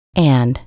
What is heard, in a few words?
"and", en; PBXNSIP